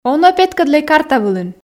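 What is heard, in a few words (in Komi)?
он-ӧ петкӧдлӧй карта вылын, Komi, female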